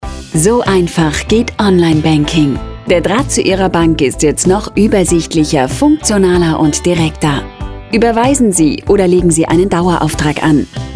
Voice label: elearning